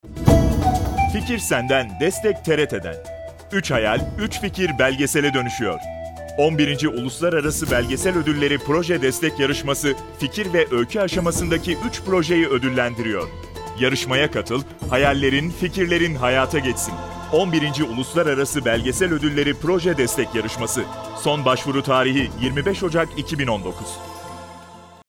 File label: Turkish commercial; Türkisch